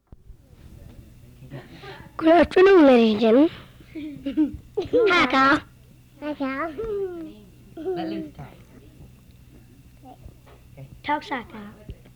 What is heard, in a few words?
Monologue